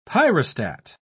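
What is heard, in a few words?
"pyrostat", British English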